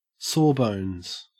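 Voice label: "sawbones", Australian English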